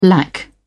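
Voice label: "lack", British English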